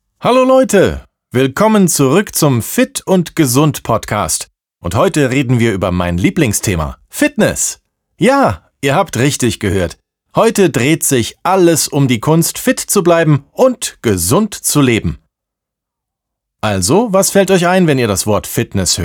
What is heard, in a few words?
german podcasts; actor de doblaje profesional